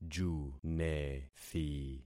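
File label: "junethi", concatenated syllables; Affixing experiment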